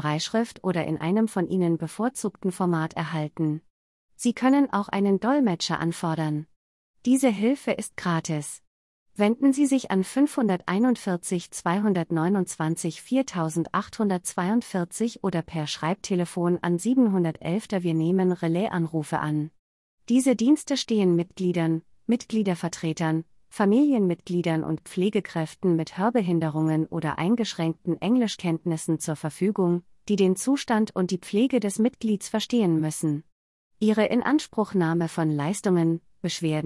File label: german